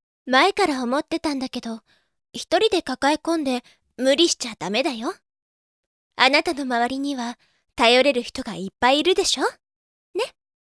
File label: girl